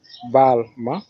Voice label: excuse me, wolof